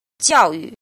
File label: "jin", Chinese